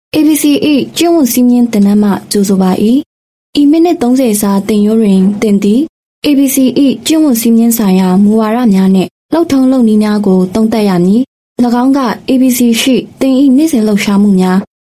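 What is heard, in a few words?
Burmese Female E-Learning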